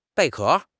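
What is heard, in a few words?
"贝壳", Mandarin Chinese; HSK6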